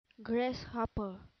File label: "grasshopper", English